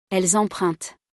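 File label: "elles empruntent", French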